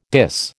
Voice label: "dis", American

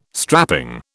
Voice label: "strapping", British

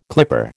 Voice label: "clipper", American

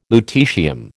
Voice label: "lutetium", American

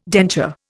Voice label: "denture", Indian

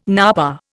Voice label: "napa", Indian

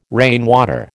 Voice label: "rainwater", American

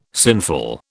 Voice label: "sinful", British

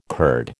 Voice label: "curd", American

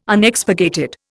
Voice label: "Unexpurgated", Indian

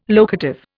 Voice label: "locative", Indian

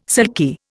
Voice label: "silky", Indian

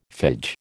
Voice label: "phage", American

